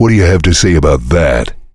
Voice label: heavy cheers; tf; duke